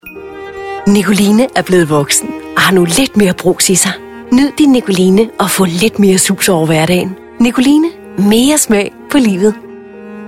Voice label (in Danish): Reklamedemo